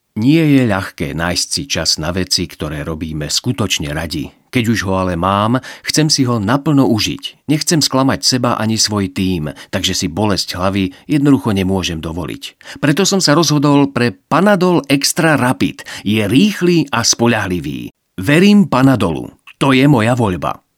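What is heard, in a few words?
Male Slovak commercial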